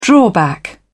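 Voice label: "drawback", British English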